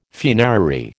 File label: "funerary", American